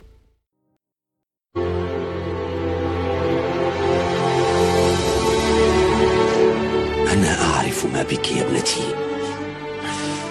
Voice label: dubbing